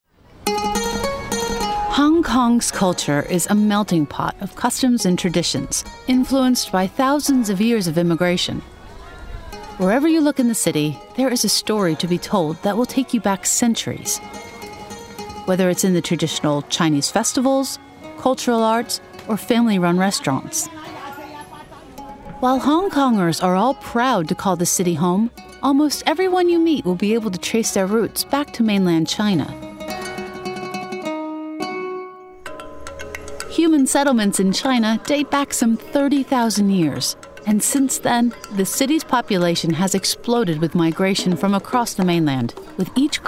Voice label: DOCUMENTARY; Hong Kong